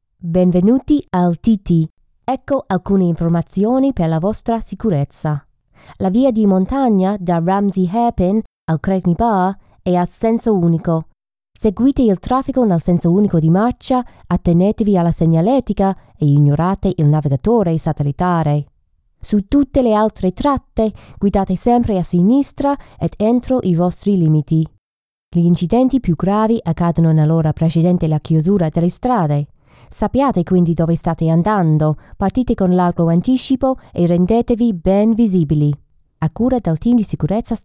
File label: Italian